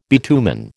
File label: "bitumen", American